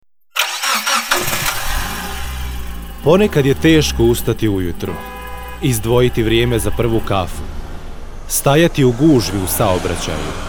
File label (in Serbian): Радио џингл; ПОЈАС